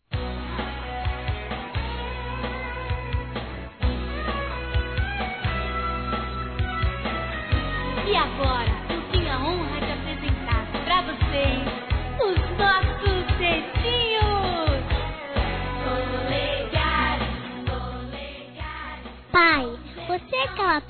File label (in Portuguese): ANIV. PAPAI CRIANÇA -F, Telemensagens; Aniversário Infantil